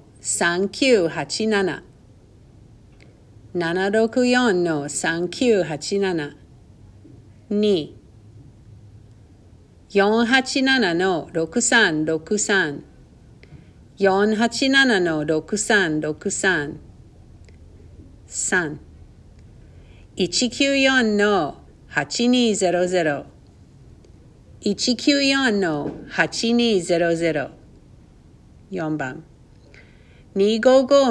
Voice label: PRACTICE PHONE NUMBERS, Japanese